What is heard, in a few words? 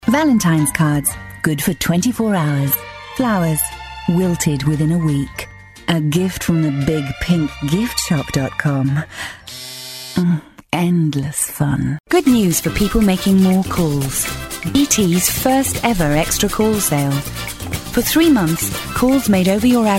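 UK female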